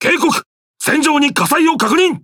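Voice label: Japanese Speech Alarms Fire Alarm; World Of Warships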